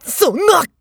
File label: nekketsu damage, voice